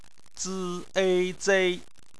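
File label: "zei", Mandarin Chinese; phonetics; bpmf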